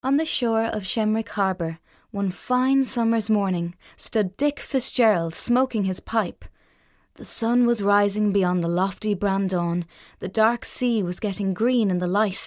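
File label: English, Narration Irish; GoLocalise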